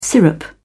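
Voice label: "syrup", British English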